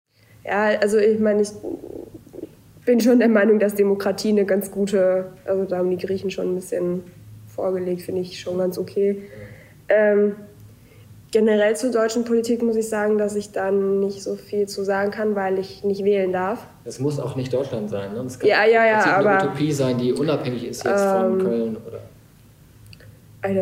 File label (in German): finnisch schwedisch finnland